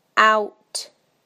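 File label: "out", British English; PictureDictionary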